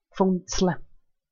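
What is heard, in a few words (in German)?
"pfunzlae", Swiss German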